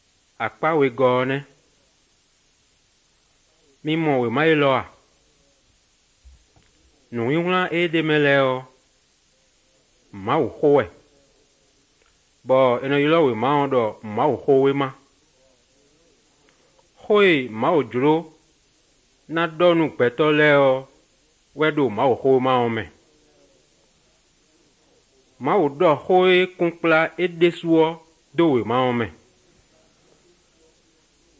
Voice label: good news, fon fongbe bible drama